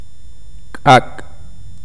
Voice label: "new", K'iche'